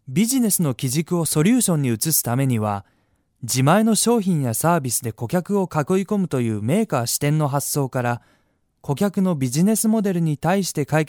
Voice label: japanese male

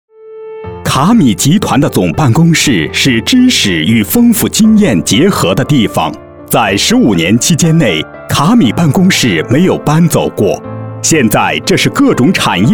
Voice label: chinese mandarin male

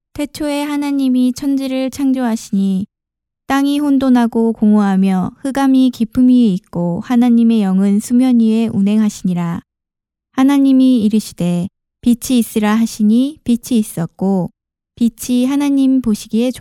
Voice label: korean female

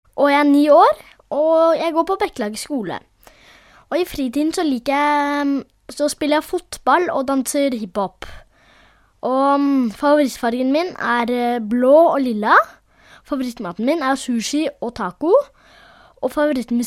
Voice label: norwegian boy

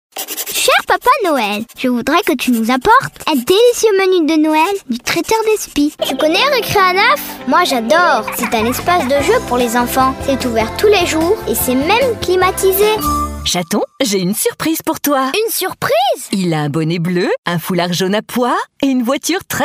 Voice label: french girl

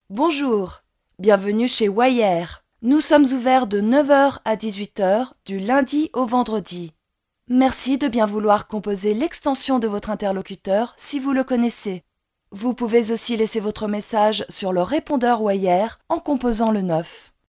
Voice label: french female

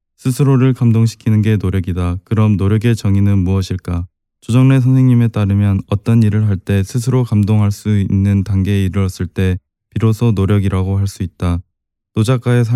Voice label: korean male

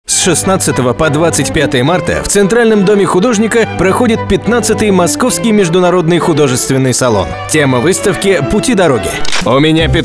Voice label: russian male

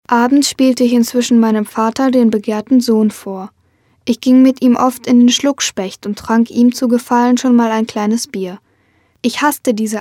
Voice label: german boy

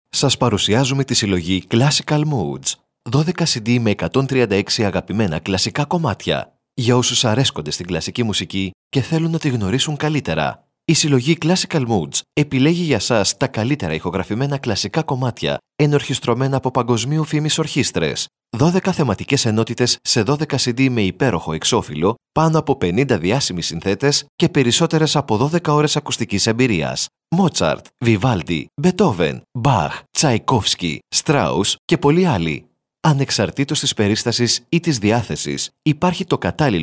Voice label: greek male

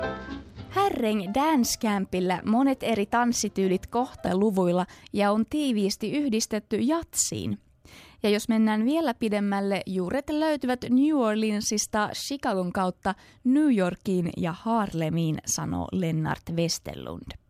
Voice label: finnish female

